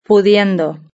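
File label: "pudiendo", Spanish; verb-conjugator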